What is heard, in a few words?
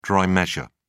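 "dry measure", British English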